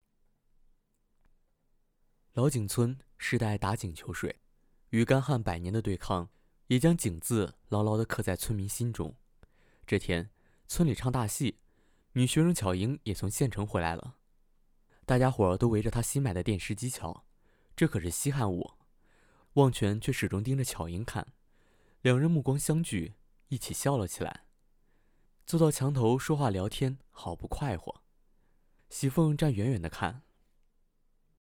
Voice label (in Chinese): 故事类男